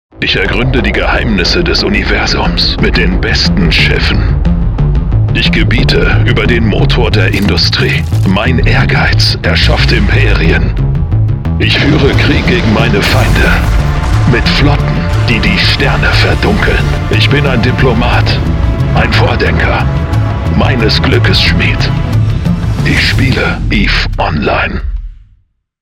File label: german video games, voice actors